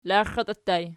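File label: "laxatii" ergative plural, Archi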